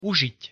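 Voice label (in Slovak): "užiť", Slovak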